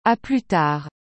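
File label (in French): "A plus tard", French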